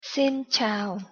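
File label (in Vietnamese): "xin chào", Vietnamese pronunciation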